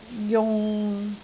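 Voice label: "jung6", Cantonese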